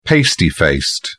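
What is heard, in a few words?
"pasty faced", British English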